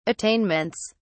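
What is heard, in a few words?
"attainments", English, British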